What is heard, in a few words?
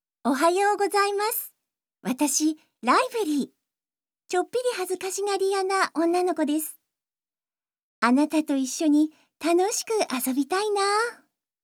Narration